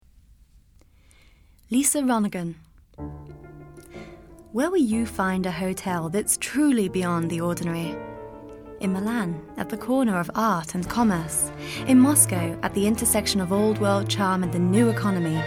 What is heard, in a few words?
English R.P British